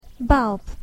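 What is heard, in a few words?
"bulb", English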